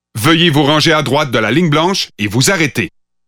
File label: Pathfinder French Canadian